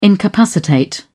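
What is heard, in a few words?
"incapacitate", British English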